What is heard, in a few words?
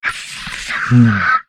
humanoid portrait male; Stellaris; humanoids